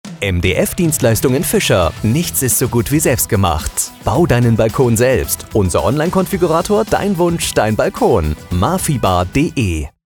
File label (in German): funkspot; mdf dienstleistungen fischer